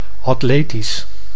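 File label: "atletisch", Dutch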